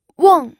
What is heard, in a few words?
"weng4", pinyin